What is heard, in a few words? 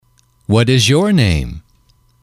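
what is your name, English, male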